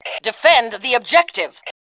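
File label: "defend objective", Fem2; Tribes2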